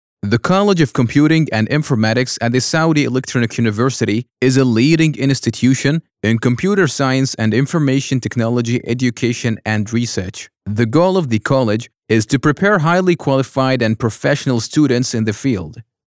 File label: US English; ESU